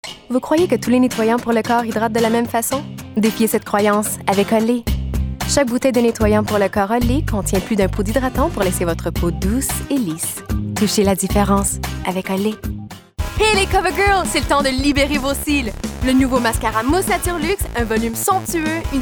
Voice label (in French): pub français